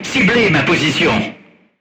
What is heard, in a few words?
voice message art command oneself, crew tanks french